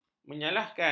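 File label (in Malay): "menyalahkan", Malay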